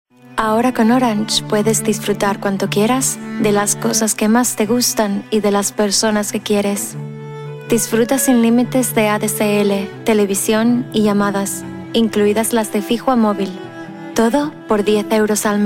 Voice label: Castilian